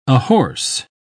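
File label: "ahorse", British English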